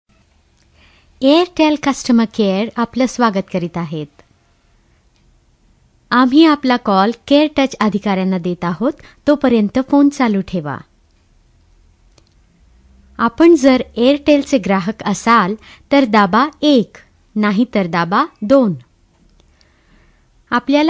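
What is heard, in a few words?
Marathi General; GoLocalise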